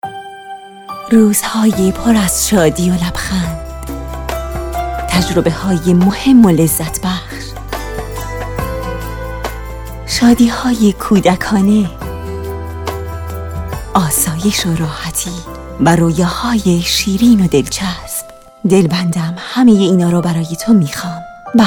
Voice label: Soft